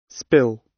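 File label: "spill", British; Language, linguistics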